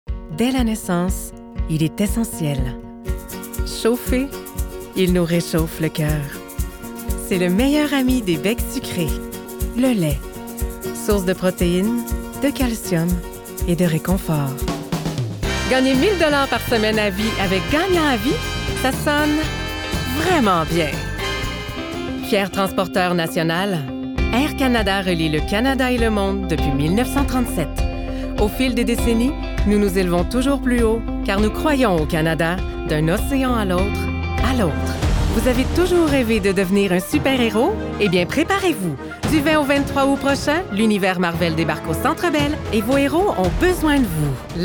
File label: Commercial